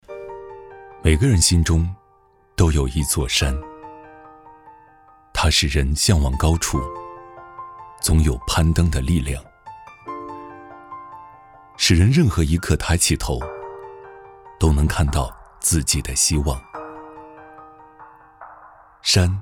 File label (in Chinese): 男 走心旁白